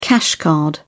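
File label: "cash card", British English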